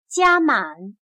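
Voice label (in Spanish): "加满", chino mandarin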